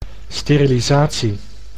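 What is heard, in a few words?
"sterilisatie", Dutch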